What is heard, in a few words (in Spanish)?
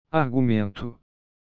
Argumento en portugues